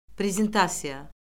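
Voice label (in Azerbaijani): "prezentasiya", Azerbaijani; Orfoqrafiya Lüğəti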